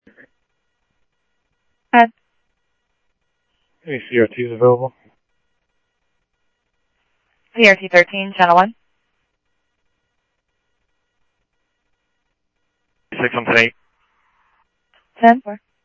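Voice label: Simulcast police department, scanner audio trunk; Mesa Simulcast; MESA PD 1 Fiesta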